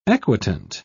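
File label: "equitant", British English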